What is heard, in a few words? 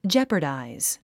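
"jeopardize", English; ごろたん 語呂でイメージする英単語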